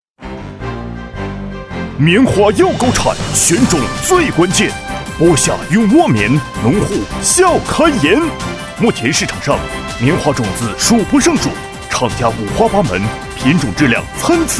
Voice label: 男 广告 电购; 永沃农业

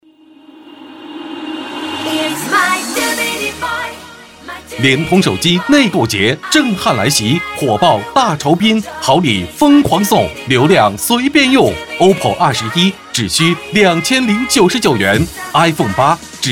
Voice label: 男 促销; 联通手机内购卖场

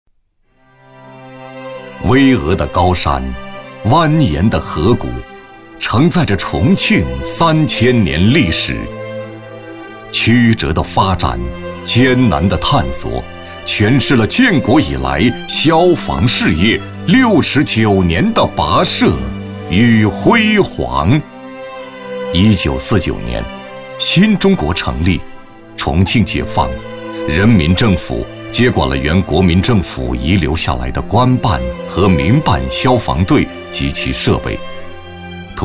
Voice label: 男 专题; 消防队专题

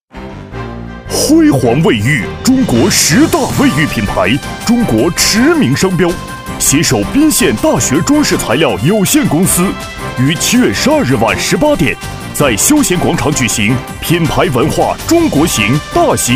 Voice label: 男-广告-卫浴激情大气; 辉煌卫浴

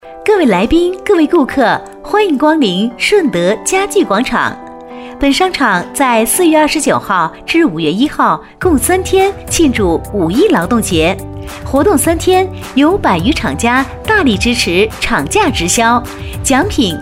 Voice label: 女-促销-卖场宣传

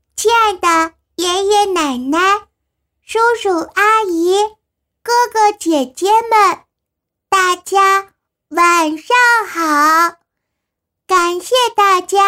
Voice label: 女 动漫 女童满月宴小童

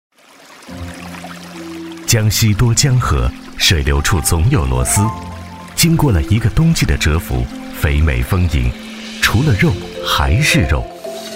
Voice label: 男 片花

